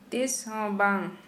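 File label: Santali female impossible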